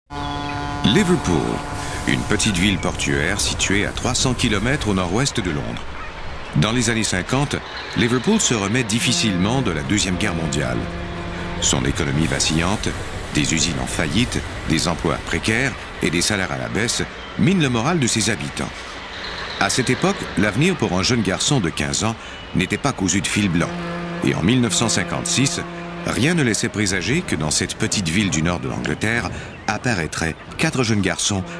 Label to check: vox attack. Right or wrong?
Wrong